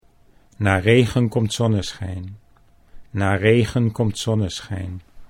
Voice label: "na regen komt", Dutch

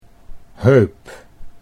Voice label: "heup", Dutch

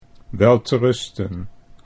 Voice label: "welterusten", Dutch

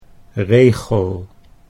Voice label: "regel", Dutch

